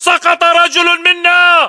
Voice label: reaction casualty generic, battle chatter, english